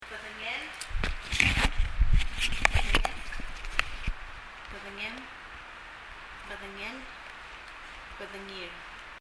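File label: "bedengel" Palauan, body; 身体; 発音; 身体の各部位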